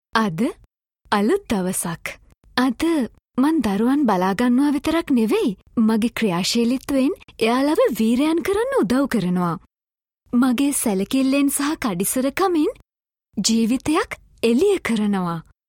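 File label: Sinhala female voice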